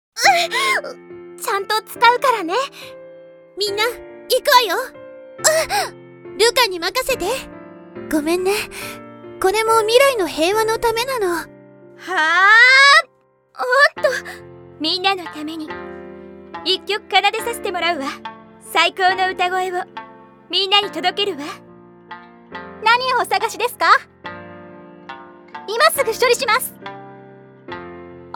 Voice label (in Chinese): 青年-日语-女